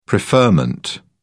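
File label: "preferment", English, British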